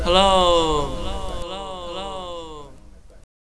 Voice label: hello echoed